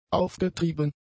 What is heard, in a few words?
"aufgetrieben", German; adjectives